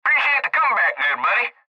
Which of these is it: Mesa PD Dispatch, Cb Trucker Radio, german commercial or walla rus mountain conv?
Cb Trucker Radio